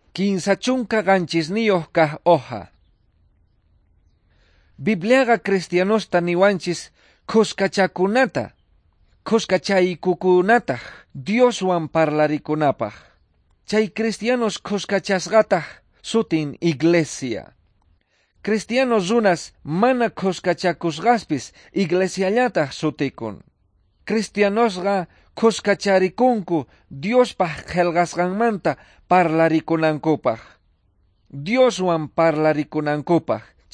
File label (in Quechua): quechua bolivian cochabamba; good news